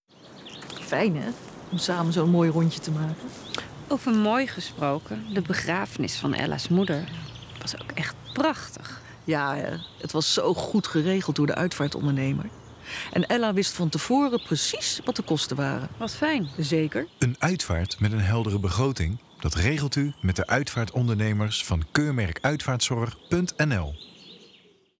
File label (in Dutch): radiocommercial